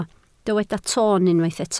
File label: "toon", Welsh